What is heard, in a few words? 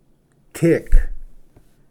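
"tick", English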